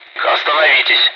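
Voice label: Speech Sound Russian Common air traffic control Messages; ATC; hold position; DCS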